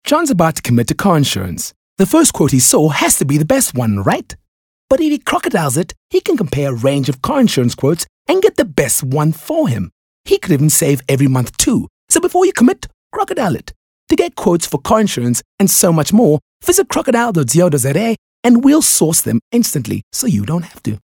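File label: English Conversational South African Neutral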